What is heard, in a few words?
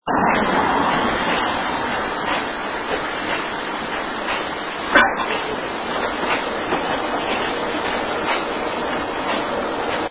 Living Room, female voice